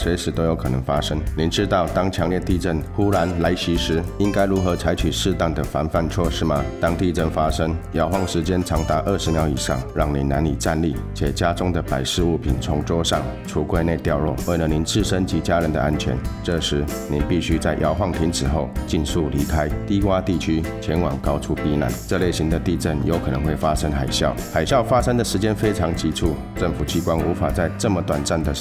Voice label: earthquake tsunami Chinese Mandarin, siren message; DHS; TEMCO